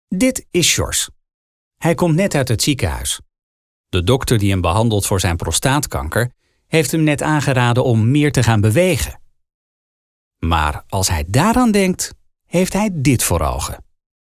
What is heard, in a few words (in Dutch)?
medisch patienten luchtig; neXt